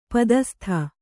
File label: "ಪದಸ್ತ", kannada